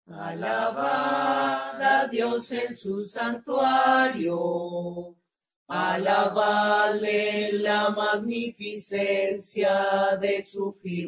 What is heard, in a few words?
Psalms 150 verses 1 6 (Spanish)